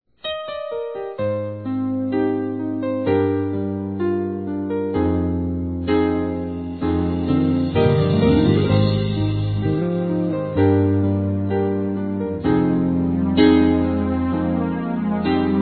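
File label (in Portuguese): HOMENAGEM AO PASTOR, feminino; Telemensagens; Telemensagens 2017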